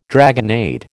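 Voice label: "dragonnade", American English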